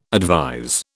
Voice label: "advise", British